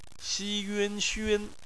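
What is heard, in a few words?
"xun", Mandarin Chinese; phonetics; bpmf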